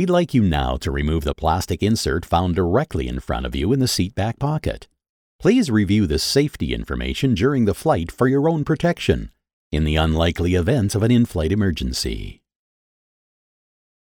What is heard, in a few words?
english american corporate